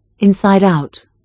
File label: "inside out", British English pronunciation